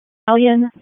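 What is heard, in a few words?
Raleigh Fire Department ALERT, p25; wake p25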